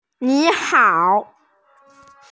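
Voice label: "ni3hao3", Mandarin Chinese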